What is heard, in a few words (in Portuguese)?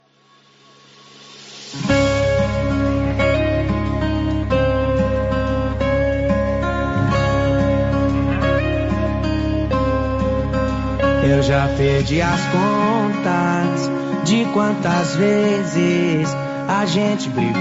Voz Masculina; Telemensagem de Conquista